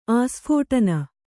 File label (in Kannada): "ಆಸ್ಫೋಟನ", kannada